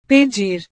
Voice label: "pedir", portugues

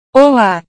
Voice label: "ola", portugues

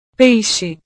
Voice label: "peixe", portugues

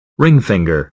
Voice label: "ring finger", inglés

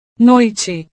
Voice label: "noite", portugues

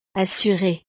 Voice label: "assurée", francés; estados animo

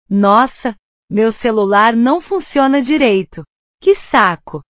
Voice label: "nossa", portugues

